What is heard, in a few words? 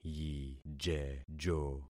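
"yijejo", concatenated syllables; Affixing experiment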